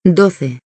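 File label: "doze", Spanish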